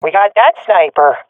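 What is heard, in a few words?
sees sniper killed, swat female; vo; Counter Strike Model Pack; CS:GO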